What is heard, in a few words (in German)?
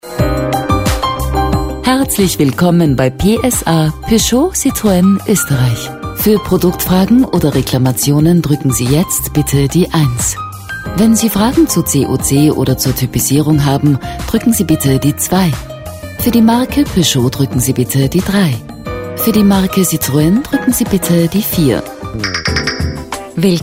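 telefonansagen sprecher Austrian; Native Speaker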